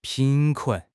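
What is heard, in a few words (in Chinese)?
"贫困", Chinese; HSK6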